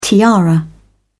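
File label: "tiara", British English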